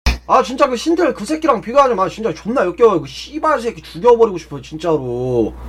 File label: scout jeers